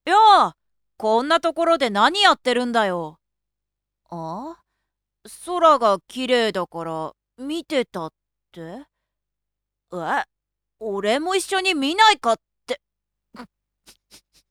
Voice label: male